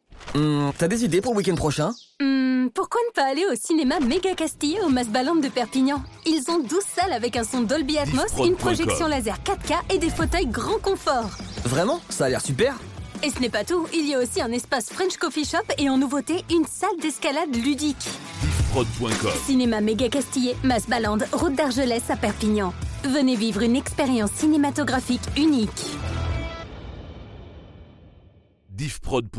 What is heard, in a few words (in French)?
Spot Cinema, publicite; Sud Eko; Mega Castillet